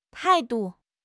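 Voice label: "tai4 du5", Mandarin Chinese; HSK4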